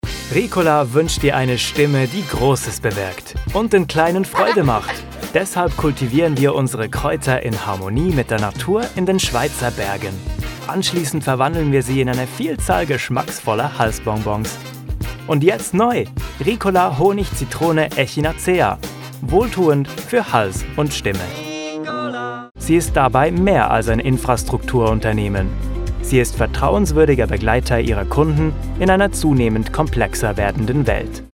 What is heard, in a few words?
German